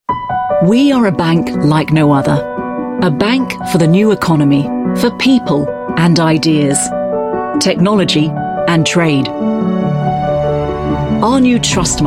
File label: UK English; Standard Chartered Bank